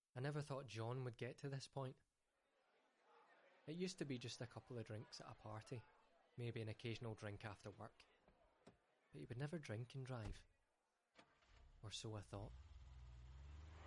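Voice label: Scottish English, male, commercial, accent of scotland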